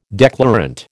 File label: "declarant", American